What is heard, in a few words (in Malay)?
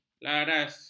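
"laras", Malay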